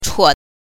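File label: "chuo", Mandarin Chinese pinyin, neutral tone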